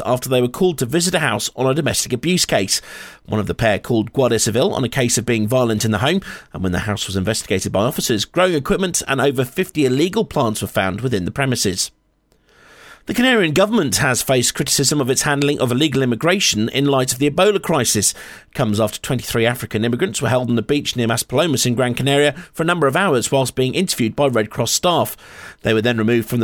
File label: Local News